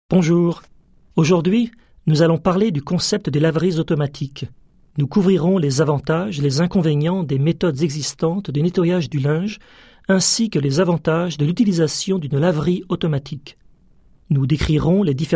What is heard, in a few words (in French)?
Francais